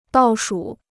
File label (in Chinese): "倒数", Chinese